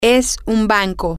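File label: it is a bank, spanish, female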